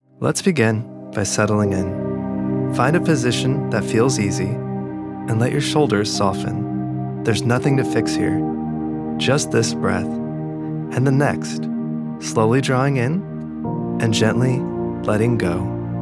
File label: wellness, calm unhurried male; meditation reel